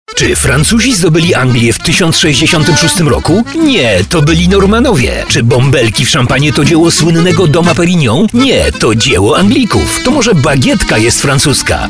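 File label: Polish, male, commercial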